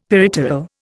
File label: "peripteral", Indian; Dictionary